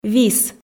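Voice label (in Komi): "вис", Komi, female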